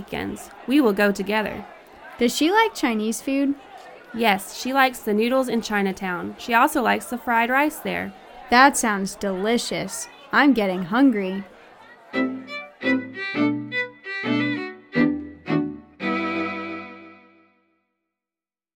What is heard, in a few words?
Conversation, English; Unit Six